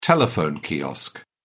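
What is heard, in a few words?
"telephone kiosk", British English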